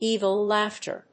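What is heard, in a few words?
"evil laughter", English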